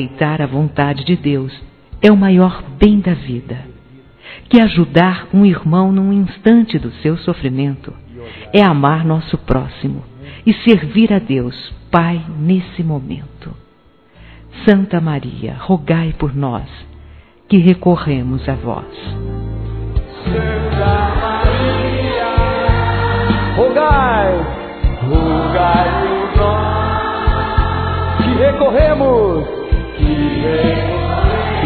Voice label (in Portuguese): Oração terço feminino; Telemensagens; Telemensagens 2017